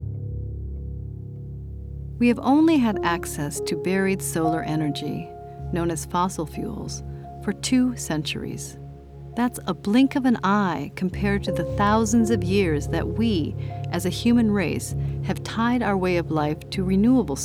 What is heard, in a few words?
voice narration fragment